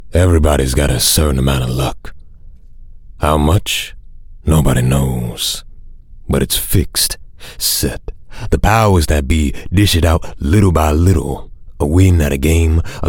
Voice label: Narration, en-AU

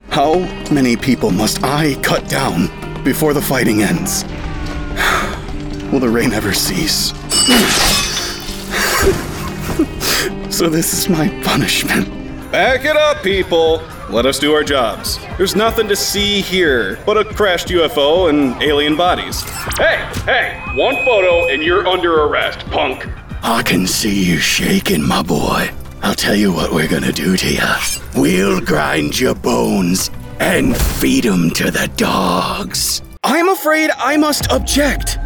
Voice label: Character

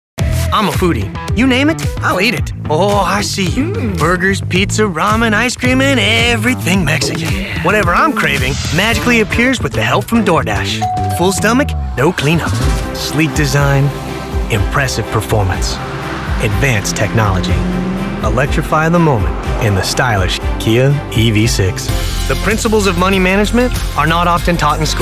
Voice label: Commercial

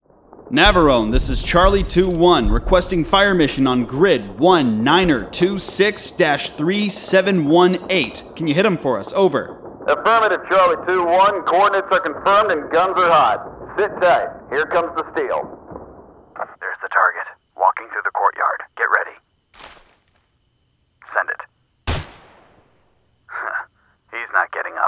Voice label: Military Style

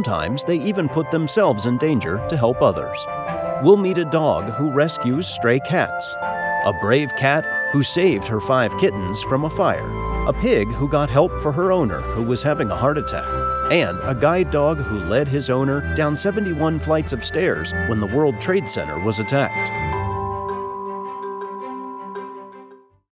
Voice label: animal doc, documentary

